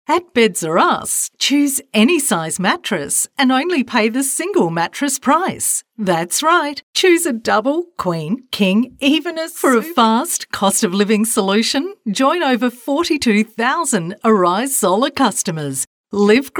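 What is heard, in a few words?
Hard Sell, voice-overs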